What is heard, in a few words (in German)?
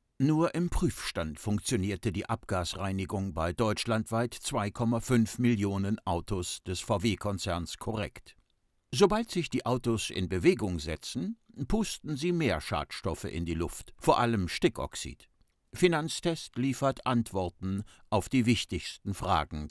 sachtext komprimiert